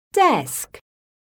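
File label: "DESK", English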